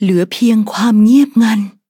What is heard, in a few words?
defeat, th; cookie run